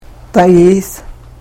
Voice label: "tayiith", karuk